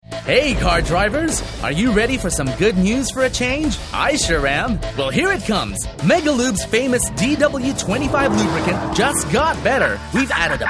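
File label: American English, TV commercial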